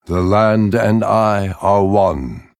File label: Male Night Elf Bark; Malfurion Stormrage